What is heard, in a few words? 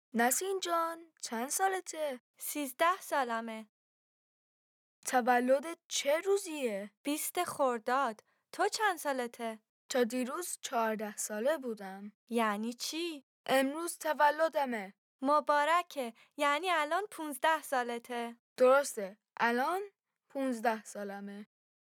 Dialogue lesson Farsi